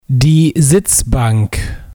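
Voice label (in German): "Sitzbank", German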